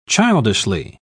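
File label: "childishly", British English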